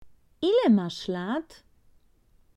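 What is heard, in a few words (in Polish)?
"ile masz lat", polaco; Vocabulario: frases y palabras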